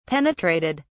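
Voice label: "penetrated", English, British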